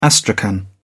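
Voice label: "astrakhan", British English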